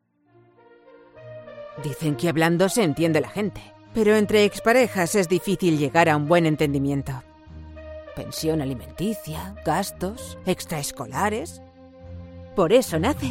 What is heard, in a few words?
FEMALE, advertising